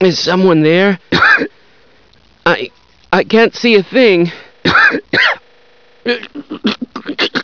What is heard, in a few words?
dying man, scientist